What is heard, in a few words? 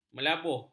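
"melabuh", Malay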